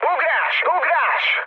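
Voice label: Hungarian bailout mood low, speech; War Thunder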